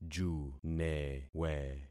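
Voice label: "junewe", concatenated syllables; Affixing experiment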